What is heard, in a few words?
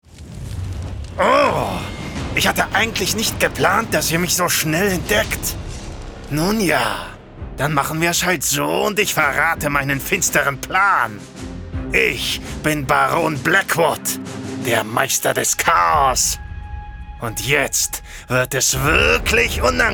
Act Schurke, Lip-Sync (Synchron), German